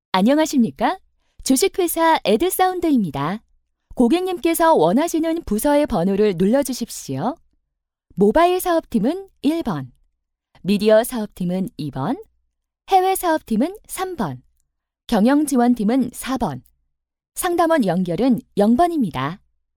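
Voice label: Korean, female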